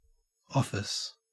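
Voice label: "office", Australian English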